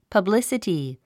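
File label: "publicity", English word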